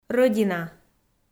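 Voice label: "Рӧдина", Komi-Zyrian, female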